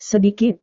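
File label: "sedikit", Indonesian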